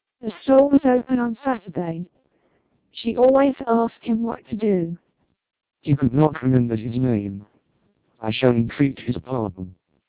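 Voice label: short, TWELP 400 bps Vocoder; British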